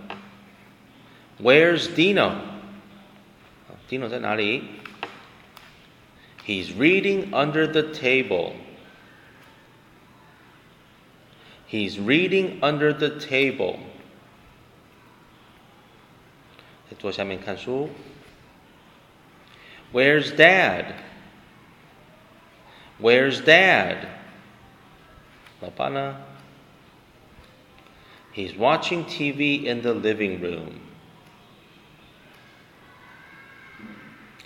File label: conversation